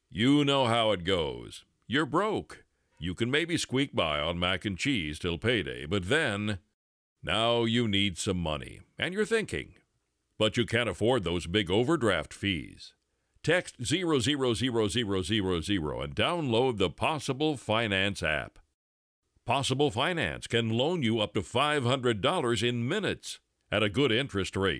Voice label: Radio Ad